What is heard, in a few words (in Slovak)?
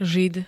"žid", Slovenské